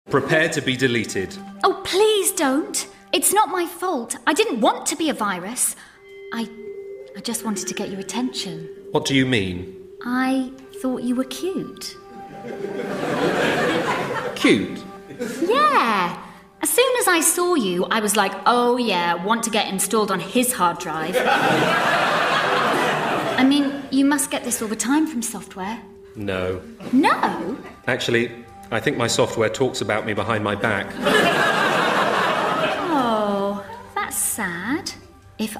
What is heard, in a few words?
audio drama